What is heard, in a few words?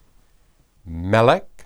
"melek", Hebrew